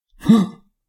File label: guard male; SyrinScape; Waterdeep